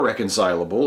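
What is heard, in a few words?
"reconcilable", American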